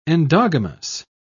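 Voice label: "endogamous", British English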